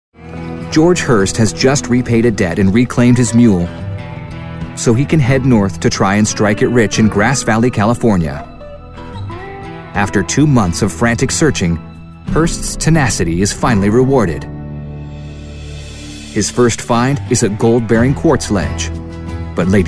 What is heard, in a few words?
Narration